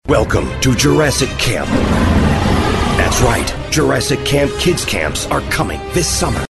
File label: Film Trailer